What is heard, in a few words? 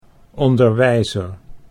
"onderwijzer", Dutch